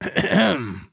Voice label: trader male neutral greeting